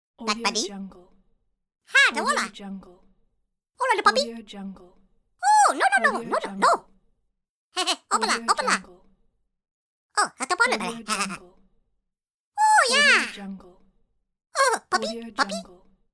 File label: cartoon minion voices